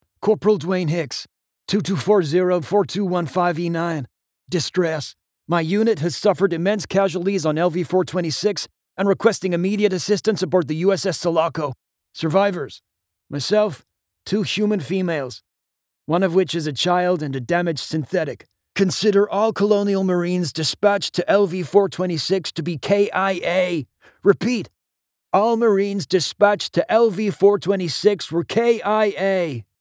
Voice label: US Marine